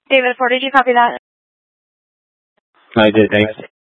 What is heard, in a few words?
Mutual Aid-Intersystem Interop B, scanner